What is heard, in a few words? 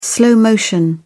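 "slow motion", British English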